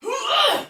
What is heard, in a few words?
Attack Heavy, Soldier Female, Battle; Dialog; Barks; Sinecure